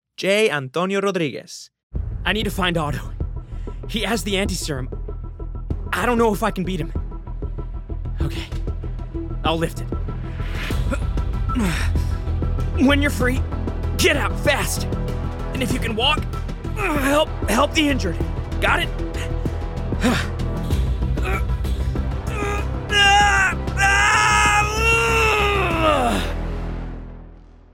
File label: Voiceover Animation; New York